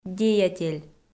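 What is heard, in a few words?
"деятель", Komi, female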